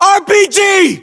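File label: British threat rpg; battlechatter; localized english; uk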